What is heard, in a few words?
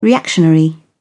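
"reactionary", British English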